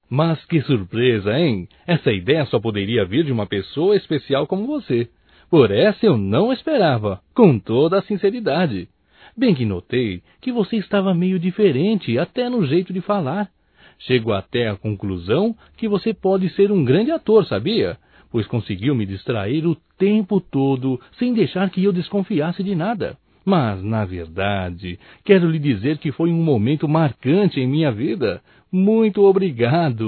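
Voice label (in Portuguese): Agradecimento pela festa de aniversário male, Só Voz; Telemensagens; AGRADECIMENTOS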